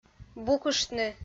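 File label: "букыштны", Komi-Zyrian, female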